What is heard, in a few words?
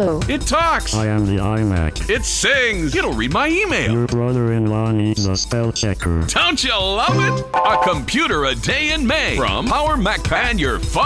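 Radio Oldies promo; KISN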